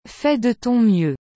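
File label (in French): "Fais de ton mieux", French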